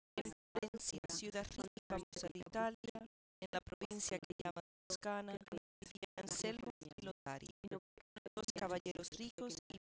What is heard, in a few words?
female; SASSEC07